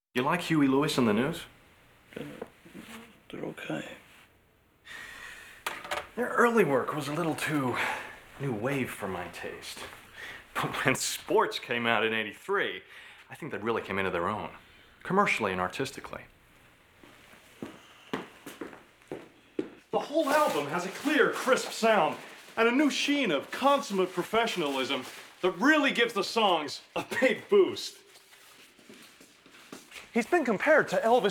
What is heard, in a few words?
Monologue; American Psycho (soundtrack)